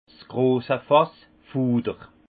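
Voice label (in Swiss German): "grosse fass", Haut Rhin; foudre; vin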